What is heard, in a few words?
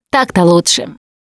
pain relief pills, Russian; Voice; Producer